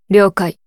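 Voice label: story, Japanese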